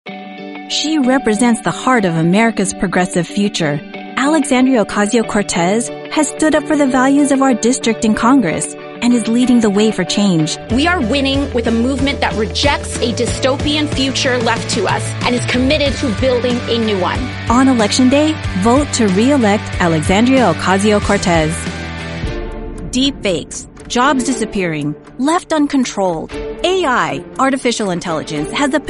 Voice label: english american political ads; first-generation, Filipina, Asian-American professional voice